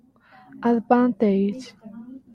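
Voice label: "advantage", Japanese, female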